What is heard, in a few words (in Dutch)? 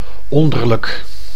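"onderlijk", Dutch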